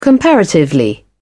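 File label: "comparatively", British English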